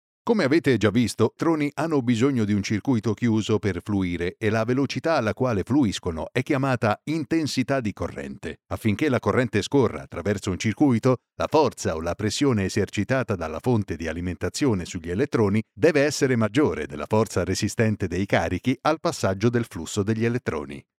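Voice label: Italian male voice over, narrative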